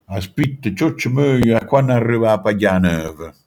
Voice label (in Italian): "paglia nuova", manfredoniano